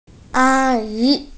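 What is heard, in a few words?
"a1yi2", Mandarin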